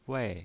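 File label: "wei", pinyin voice, fourth tone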